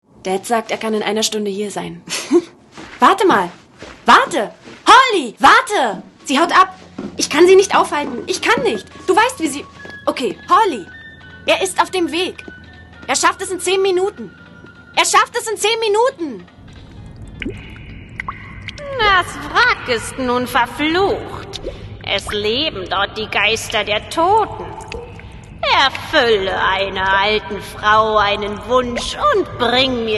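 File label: DE Characters, German; GoLocalise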